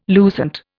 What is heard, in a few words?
"lucent", Indian